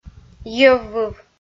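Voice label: "йӧввыв", Komi, female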